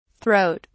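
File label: "throat", English; whats the matter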